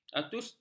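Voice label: "autistik", Malay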